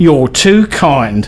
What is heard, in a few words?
Thanks, male; svencoop